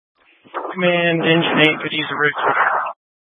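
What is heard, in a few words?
Incident Tac 2, Scanner audio recording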